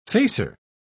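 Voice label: "facer", British English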